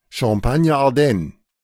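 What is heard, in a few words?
German "Champagne-Ardenne"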